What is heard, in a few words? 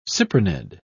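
"cyprinid", British English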